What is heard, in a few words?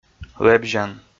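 "лэбзян" Komi, male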